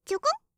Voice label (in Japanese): "ちょこんっ", 擬音